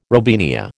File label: "robinia", American